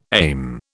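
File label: "aim", British English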